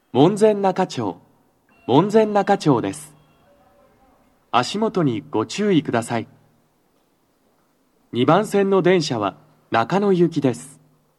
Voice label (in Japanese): 到着放送, 放送は「中野行」です。両面箱型での収録です。; 門前仲町